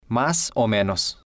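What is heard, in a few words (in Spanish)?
mas o menos, Spanish, male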